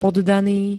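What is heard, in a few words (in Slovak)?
"poddaný", Slovak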